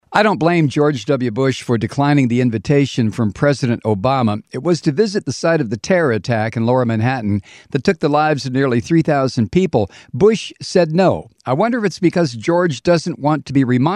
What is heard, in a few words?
promo